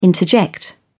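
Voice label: "interject", English, British English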